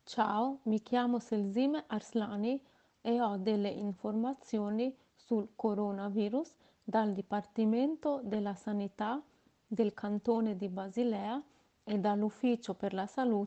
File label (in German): italienisch; kantonsarztlicher dienst; medizinische dienste; informationen in verschiedenen sprachen